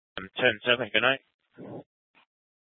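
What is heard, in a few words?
Scottsdale Police South Dispatch; Scttsdle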